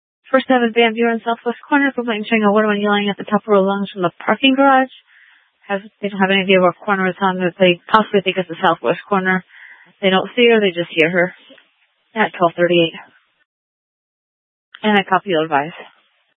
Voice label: Police Dispatch

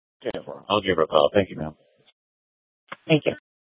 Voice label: Sheriff, scanner P25

